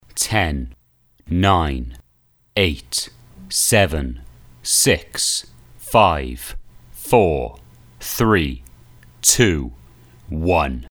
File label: countdown dry